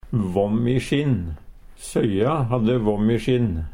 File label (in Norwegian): våmm i sjinn, Numedalsmål; brokk; Søya hadde våmm i sjinn.; Uttrykk